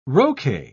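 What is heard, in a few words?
"roquet", British English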